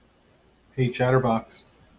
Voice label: hey chatterbox, en us